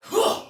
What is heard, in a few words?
Attack, Soldier Female, Battle; Sinecure